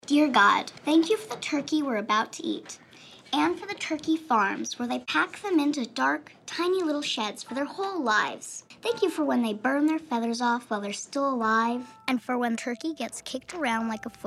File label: Radio PSA; Thanksgiving